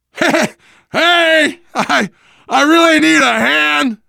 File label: ledge hang start, voice, biker; Left 4 Dead 2; left4dead2 dlc3; survivor